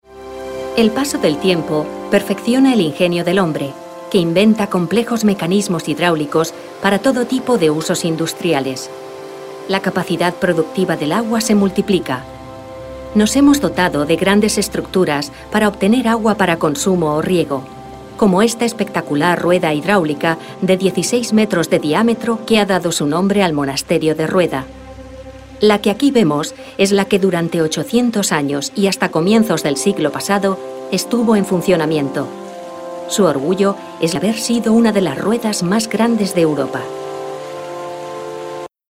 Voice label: female spanish documentary; ideasonora